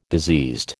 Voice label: "diseased", American